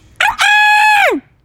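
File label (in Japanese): "チーズ", Japanese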